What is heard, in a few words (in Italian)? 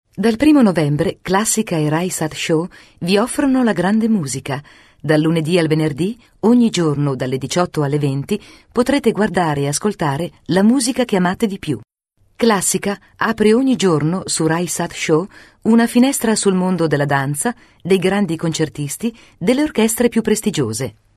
documentario